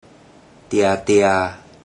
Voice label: "tia3tia3", Teochew; Relatives

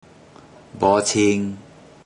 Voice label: "bo42chin3", Teochew; Relatives

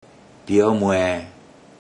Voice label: "pio42mue0", Teochew; Relatives

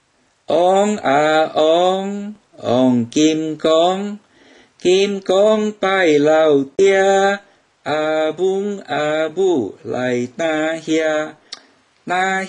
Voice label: Rock Rock Baby Recite, Teochew Nursery Rhymes

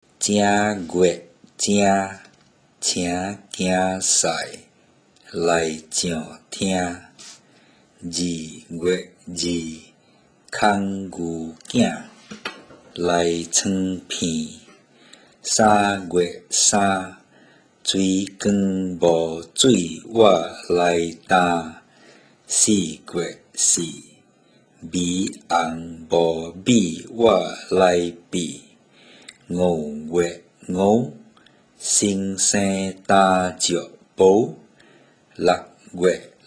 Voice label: Twelve Months Read, Teochew

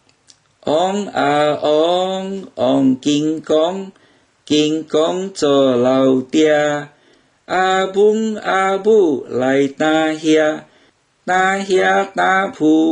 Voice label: Rock Rock Baby Recite, Teochew Nursery Rhymes

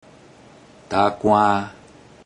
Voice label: "ta42kua`3", Teochew; Relatives

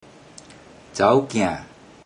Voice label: "zao42kia`4", Teochew; Relatives

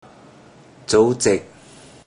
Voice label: "zou42zeig0", Teochew; Relatives

